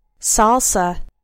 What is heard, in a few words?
"salsa", English; english vocabulary words dance